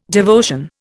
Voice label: "devotion", Indian